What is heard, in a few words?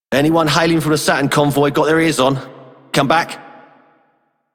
male radio; Male One; en; Dialog; Warframe; Solaris Venus